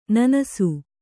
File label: "ನೆನಸು", Kannada